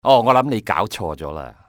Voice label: confused, male; XBOX; civ